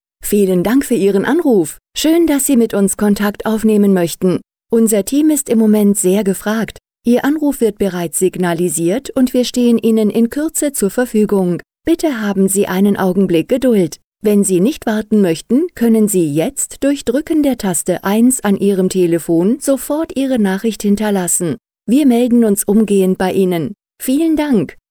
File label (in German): Begruessung (w)